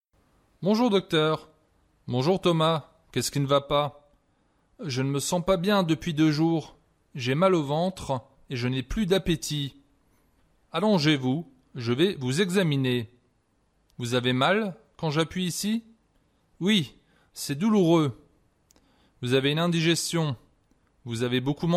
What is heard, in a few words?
Conversation, French